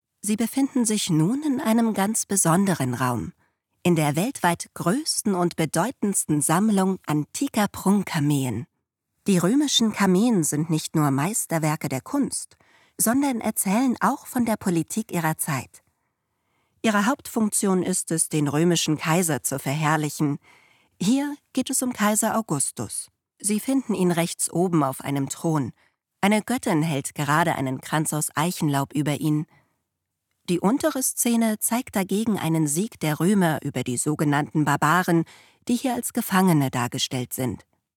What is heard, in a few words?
audioguide; kameen